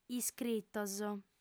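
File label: "iscritos", Sardinian, female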